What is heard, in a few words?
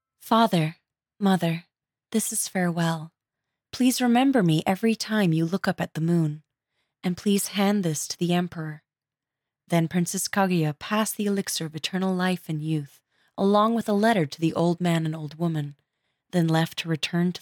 English